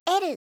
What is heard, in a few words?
"l", alphabet, voice